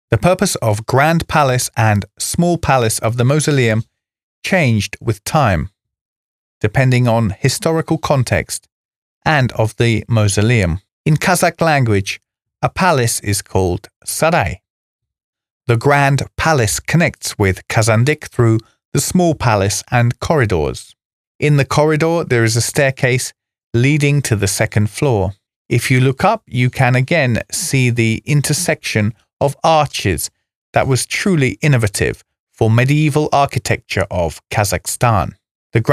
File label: audioguide en